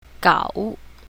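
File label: "gao3", Chinese pinyin, south, female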